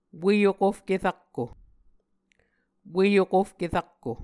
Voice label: "Ue Okofke Rakko", Muscogee